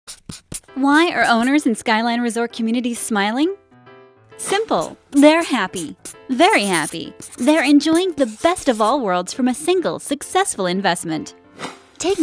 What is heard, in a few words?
Female Voiceover